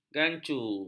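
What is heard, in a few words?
"gancu", Malay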